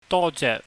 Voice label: "dojeh", cantonese